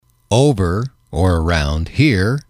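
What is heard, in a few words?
"over around here", English, male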